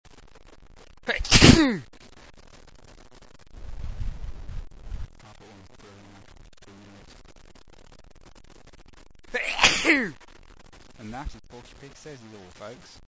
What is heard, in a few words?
loud, male